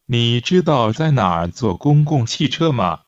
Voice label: Mandarin Conversation